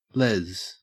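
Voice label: "lez", Australian English; pronunciations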